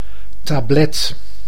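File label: "tablet", Dutch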